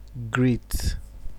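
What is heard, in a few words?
"greet", Nigerian Pidgin, male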